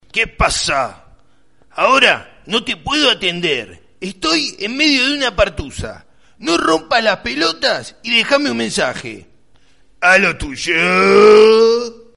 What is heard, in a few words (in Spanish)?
argentino gracioso